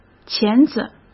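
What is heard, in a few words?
"钳子", Mandarin Chinese; hsk; chinese flashcards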